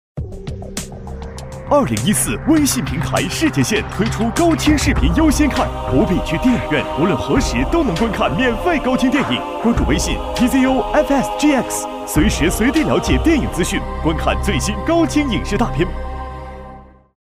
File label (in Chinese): 男 广告